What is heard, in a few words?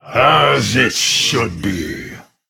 Male Human Trigger